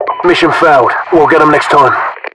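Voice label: British mission fail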